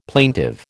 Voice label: "plaintive", American

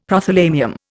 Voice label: "Prothalamium", Indian; Dictionary

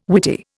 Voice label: "Whitey", Indian

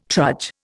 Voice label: "trudge", Indian

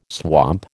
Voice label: "swamp", American

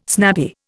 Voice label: "snappy", Indian

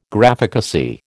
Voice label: "Graphicacy", American

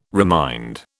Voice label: "remind", British

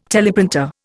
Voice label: "teleprinter", Indian

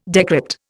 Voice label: "decrypt", Indian

